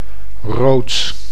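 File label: "rood", Dutch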